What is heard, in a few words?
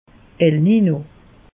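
"elnino", Alsatian, Bas Rhin; meteo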